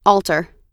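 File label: "alter", US English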